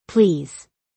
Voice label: "Please", English; Basic Greetings and Courtesy